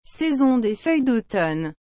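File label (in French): "saison des feuilles d automne", French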